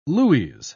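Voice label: "lues", British English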